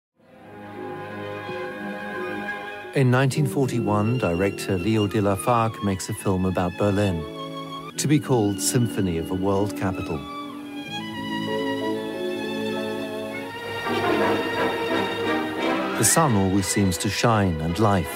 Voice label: commentary; berlin 1945

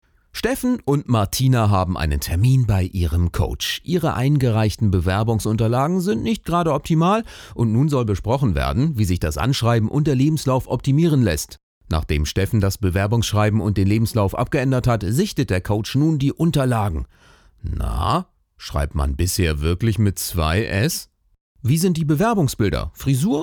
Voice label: stimmprobe voiceover

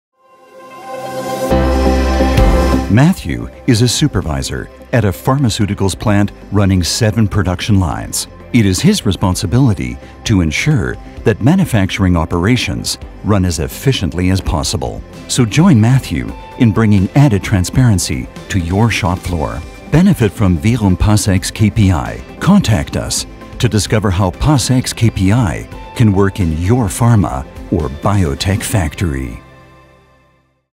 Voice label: imagefilm; korber pasx

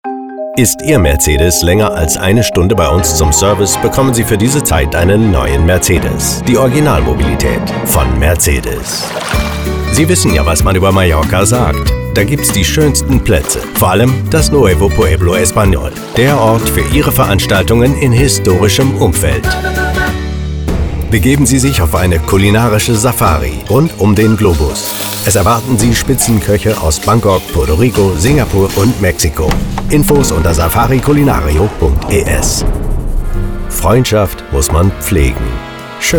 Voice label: German commercial

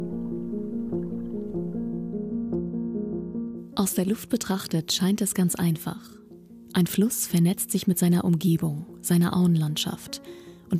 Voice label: trailer; haus der fluesse

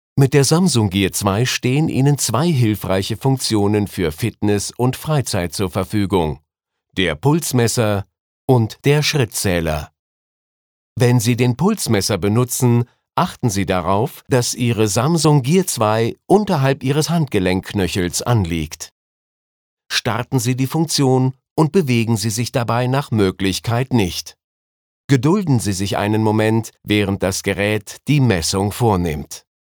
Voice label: tutorial; samsung gear2

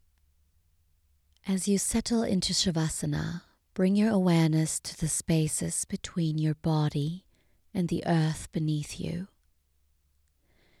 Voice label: yoga nidra english

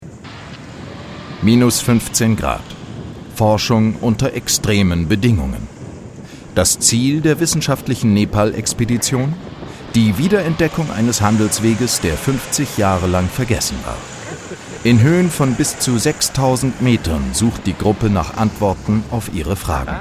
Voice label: voice over e-learn doku

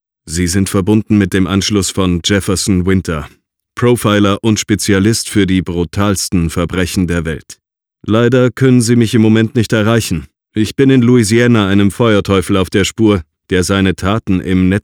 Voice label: character narration

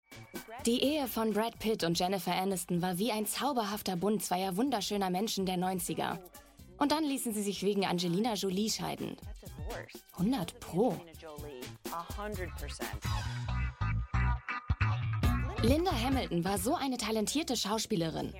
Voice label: voice over; ultimate countdown

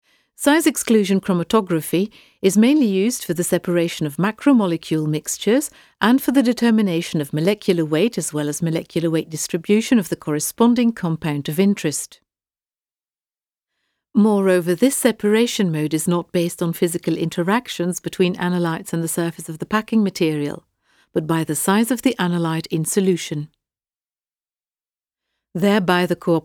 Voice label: web seminar english uk mild accent

